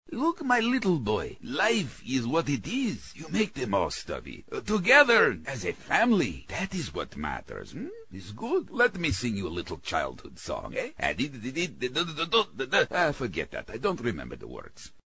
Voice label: Russian Popa; animation